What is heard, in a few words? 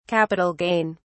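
"capital gain", British English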